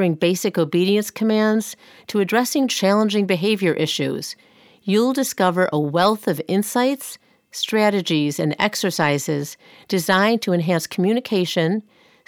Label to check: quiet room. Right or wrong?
Wrong